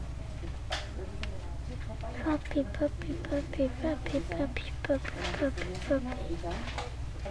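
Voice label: "puppy", spoken word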